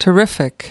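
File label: "terrific", US English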